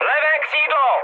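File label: left wing, Czech, mood high; War Thunder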